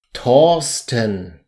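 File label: "torsten", German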